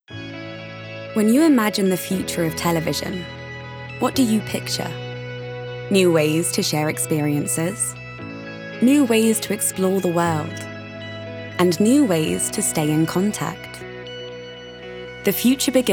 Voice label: Conversational, Bright, Upbeat, Natural, RP ('Received Pronunciation')